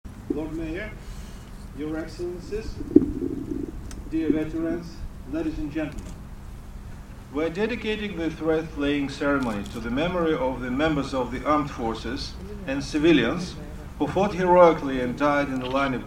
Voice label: Russian ambassador speech at Soviet war memorial